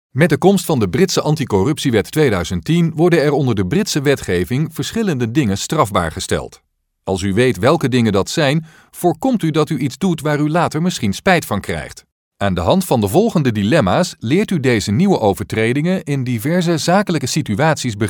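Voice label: Dutch, male, e-learning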